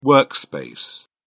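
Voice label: "workspace", British English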